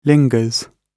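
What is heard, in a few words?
"lingers", British English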